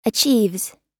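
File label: "achieves", American English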